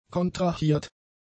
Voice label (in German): "kontrahiert", German; adjectives